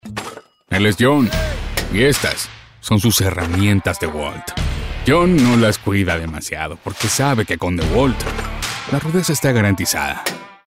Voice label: Commercial - Spanish Latin

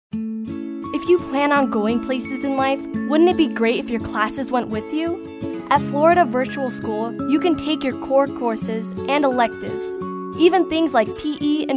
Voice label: kid next door

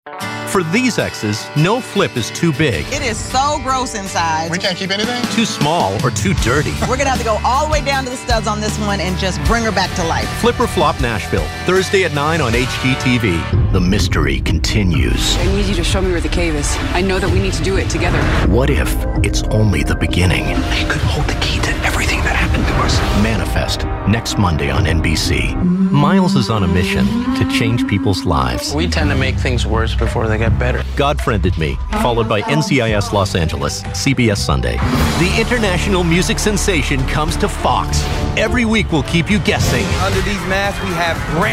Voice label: Promos

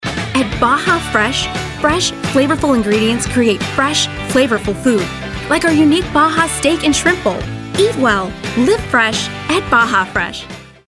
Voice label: perky

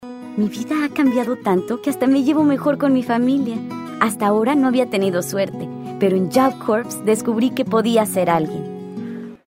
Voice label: Political, Spanish; Job Corps

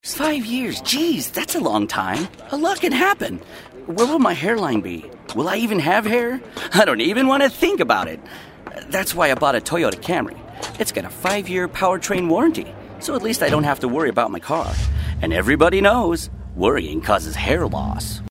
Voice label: real ppl youthful

